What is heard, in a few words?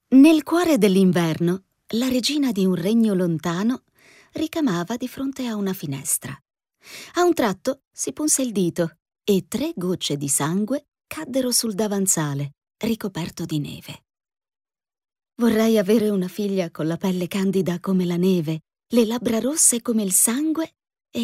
italian f narration; voice demos